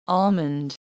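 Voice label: "almond", USA, female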